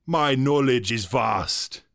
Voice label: vocal witch doctor dialogue my knowledge is vast male dry